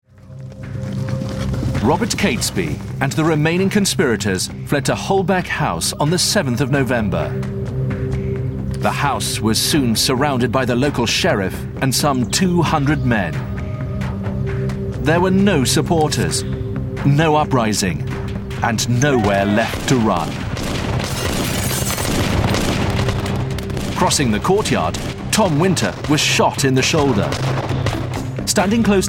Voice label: documentary; GUNPOWDER